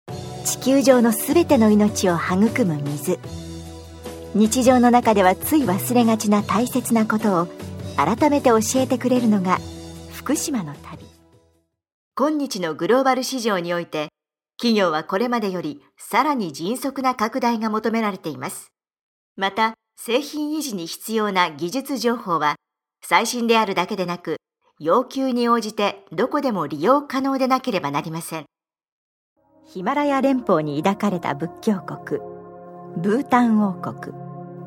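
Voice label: Japanese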